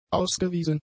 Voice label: "ausgewiesen", German; adjectives